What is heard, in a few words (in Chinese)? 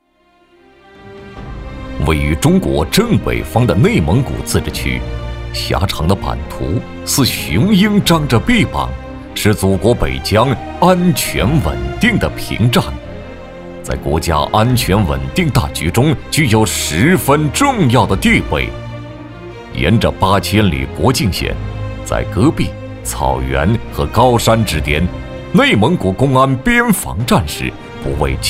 男国 边防卫士 力度